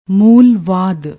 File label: "mool vaad", Punjabi